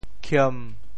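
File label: "khiem1", Teochew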